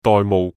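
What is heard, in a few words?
"玳瑁", Cantonese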